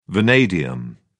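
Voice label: "vanadium", British English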